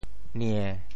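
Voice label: "nie~7", Teochew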